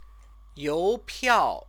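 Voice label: "youpiao", Chinese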